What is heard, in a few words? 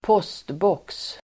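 "postbox", Swedish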